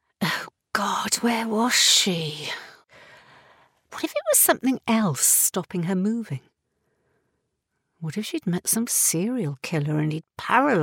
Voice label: english british audiobooks

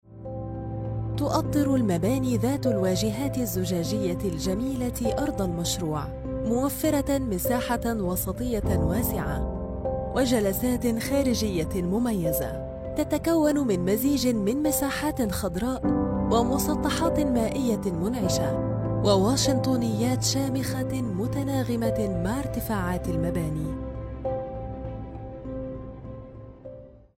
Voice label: arabic corporate videos

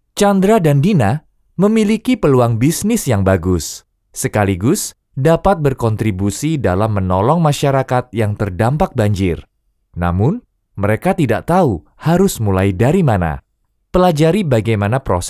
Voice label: indonesian audiobooks

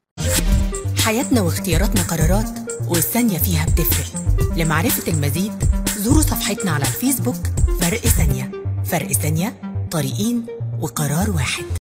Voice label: arabic movie trailers

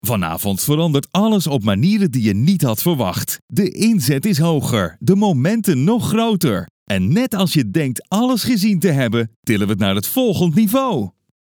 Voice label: dutch tv shows

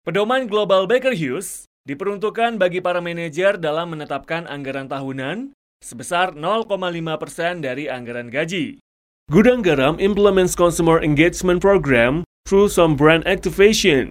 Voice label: indonesian commercial

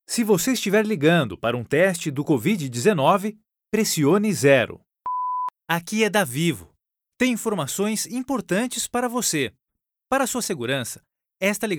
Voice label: portuguese brazilian ivr

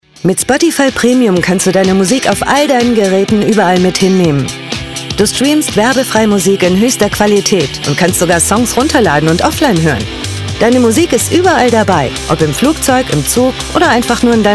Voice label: german promos

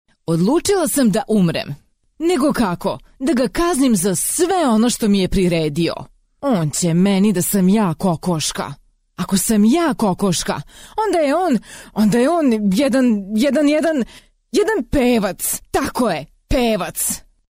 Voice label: serbian audiobooks

